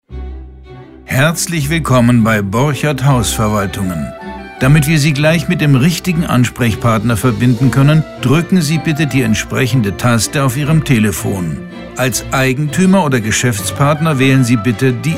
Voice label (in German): Hausverwaltung IVR; Verwaltung – Hausverwaltung – Hausmeister; Telefonansage; Borchert; 1a-telefonansagen